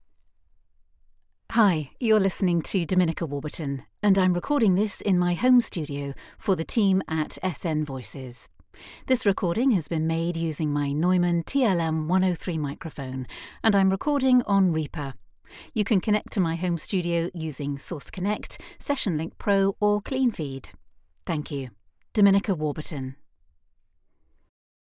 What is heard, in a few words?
Home Studio Sample